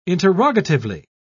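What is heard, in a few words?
"interrogatively", British English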